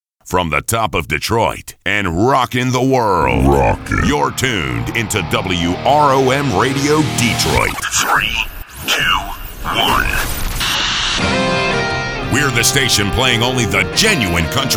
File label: IMAGING